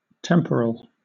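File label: "temporal", British; pronunciations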